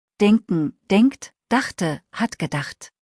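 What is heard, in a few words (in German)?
"denken" German word; verben kurzliste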